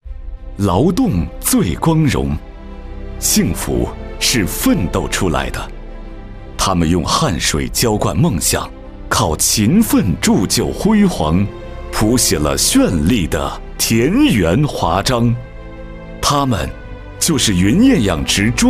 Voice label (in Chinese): 专题 汇报 养殖 浑厚; 砚山县云砚养殖